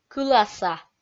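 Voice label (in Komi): "кыласа", Komi, female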